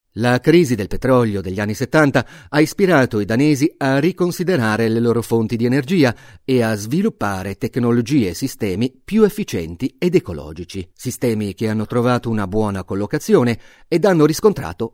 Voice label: Italian Corporate

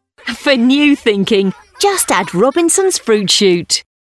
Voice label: Commercial Endline; Robinsons

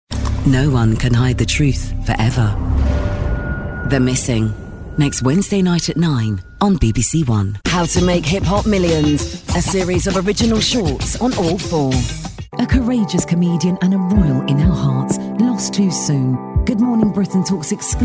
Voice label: Promos; Vocal Point

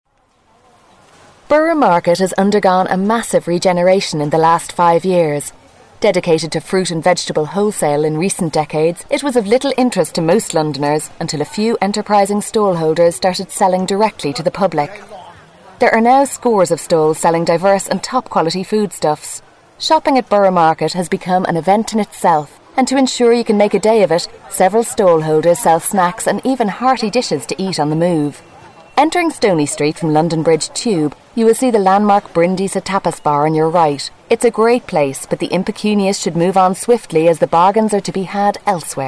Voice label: Narration; Borough market; Vocal Point

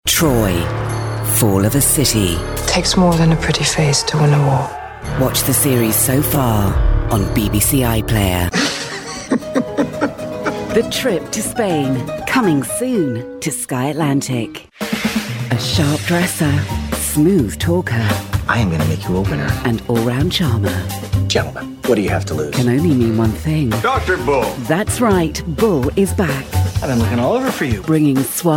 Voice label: Promos; Vocal Point

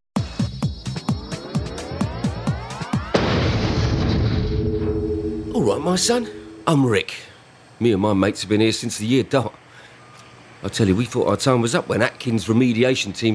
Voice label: Corporate Character; Atkins

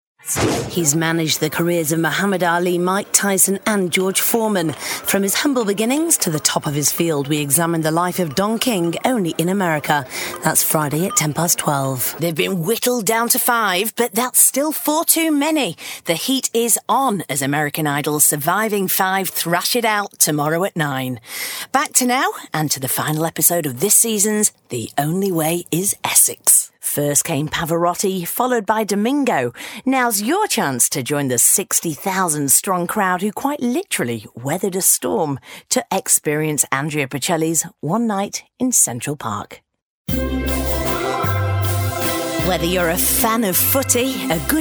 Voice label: Continuity

